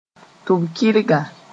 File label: "tʋb-kɩrga", moore; part of ear